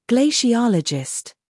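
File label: "humor", British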